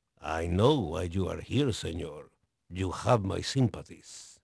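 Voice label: Spanish male, voice; Pirates Of The Caribbean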